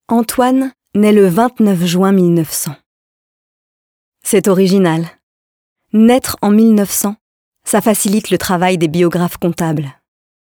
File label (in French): voix off; EMPRUNTE VOCALE